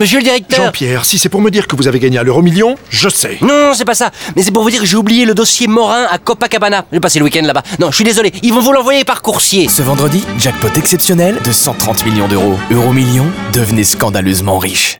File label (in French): RADIO; EUM JACKPOT 130M; FR